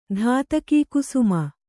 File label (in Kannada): "ಧಾತಕೀಕುಸುಮ", Kannada